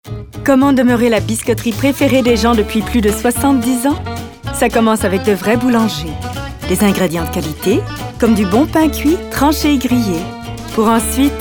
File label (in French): FR; Grissol